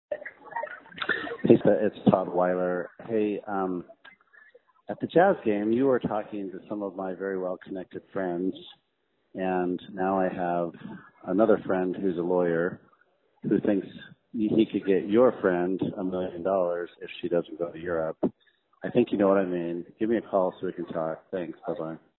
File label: Extortion Voicemail